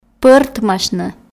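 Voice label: "пӧртмасьны", Komi, female; kpv audio dict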